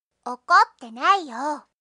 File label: anime, Irritated & Angry Phrases from Anime Girls